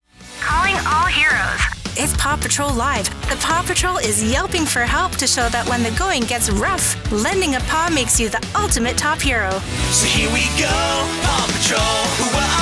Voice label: Commercial; Canadian voice actor; bright, conversational reads